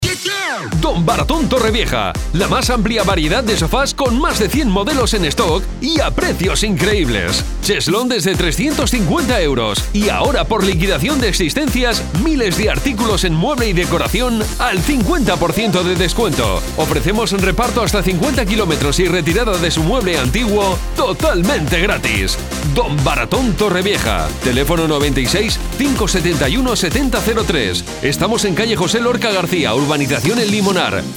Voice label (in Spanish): anuncio radio; Don Baraton